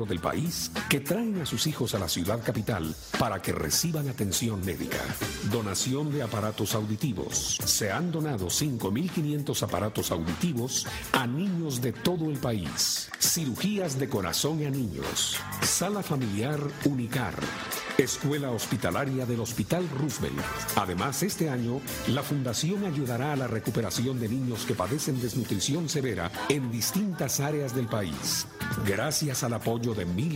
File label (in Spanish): locutor guatemala